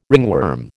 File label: "ringworm", American